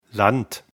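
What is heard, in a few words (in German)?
"Land", German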